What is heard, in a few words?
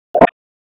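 Wake Forest Police Dispatch, p25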